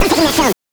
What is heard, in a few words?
VOX FILM; NES DPCM; MIDINES